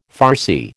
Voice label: "farcy", American

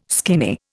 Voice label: "skinny", Indian

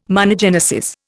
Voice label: "monogenesis", Indian

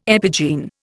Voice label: "epigene", Indian

